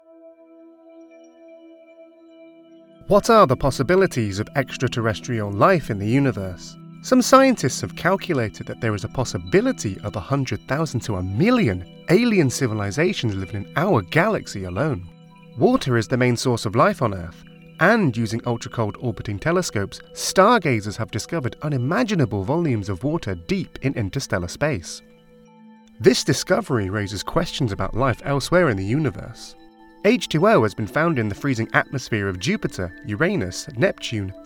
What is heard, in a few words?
Narration NO SLATE